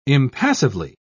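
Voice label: "impassively", British English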